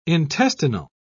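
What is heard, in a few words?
"intestinal", British English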